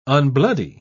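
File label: "unbloody", British English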